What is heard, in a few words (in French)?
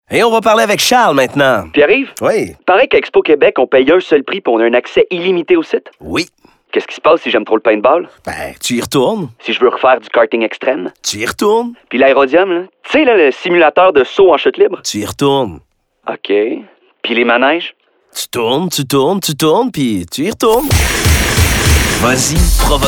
Publicite radio garcon; Expo Quebec 2014